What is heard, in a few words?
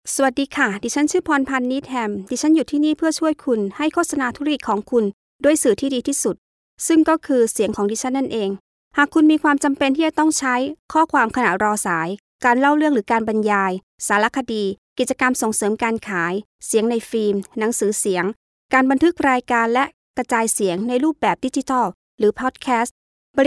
Thai